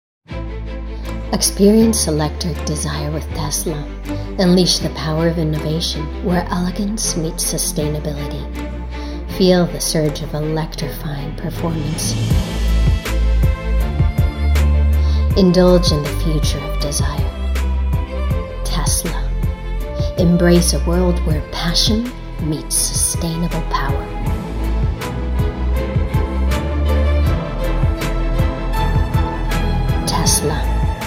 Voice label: corporate, English US, female